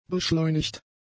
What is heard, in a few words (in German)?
"beschleunigt", German; adjectives